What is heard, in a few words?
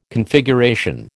"Configuration", American